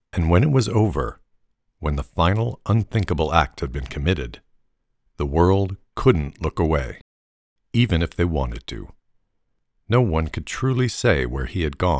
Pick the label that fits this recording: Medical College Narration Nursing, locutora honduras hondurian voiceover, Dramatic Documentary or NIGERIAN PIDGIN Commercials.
Dramatic Documentary